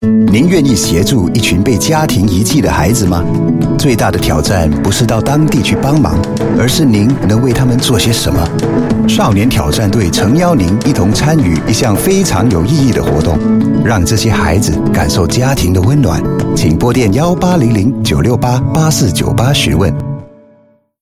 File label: Mandarin youth challenge, China male